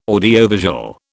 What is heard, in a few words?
"audiovisual", British